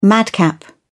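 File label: "madcap", British English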